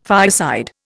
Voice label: "fireside", Indian